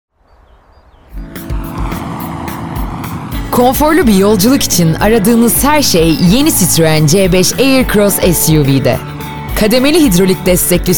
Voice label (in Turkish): reklam; citroen